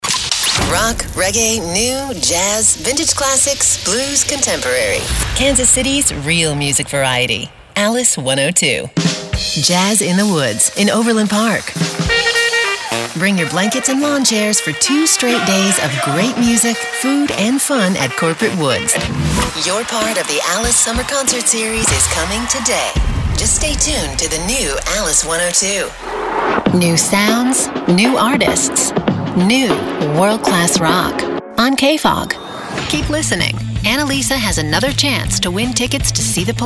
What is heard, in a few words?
AAA Imaging